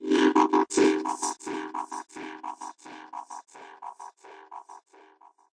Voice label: vocoded rastaman; Robotic or Stuttery or Spooky

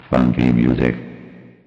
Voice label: robot funky music

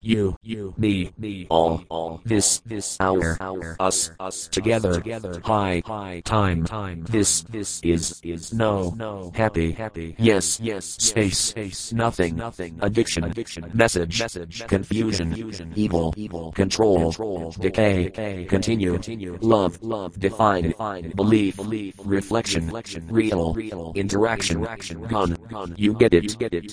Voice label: random robot echo words; Robotic or Stuttery or Spooky

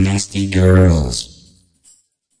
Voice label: nasty girls vocoded

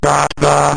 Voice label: got the robotic

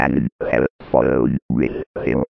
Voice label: hellish robot vox

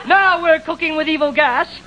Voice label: cooking with evil gas, MALE MISC and SPOKEN WORD

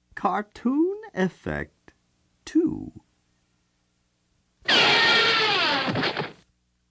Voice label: cartoon fx, Zany SFX Announcer

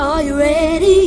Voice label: diva "are you ready", Cheesy Divas

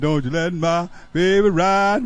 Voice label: dont you let my baby ride, vocal loops; MELODIC LOOPS; VOCAL OR VOXY LOOPS

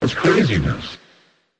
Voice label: craziness, Robotic or Stuttery or Spooky VOCALS

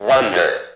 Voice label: robot wonder

